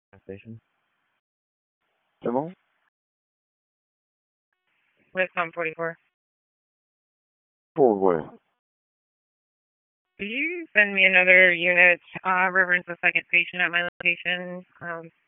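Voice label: EMS Dispatch, p25; wake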